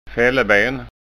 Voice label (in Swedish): "falleben", skanskaord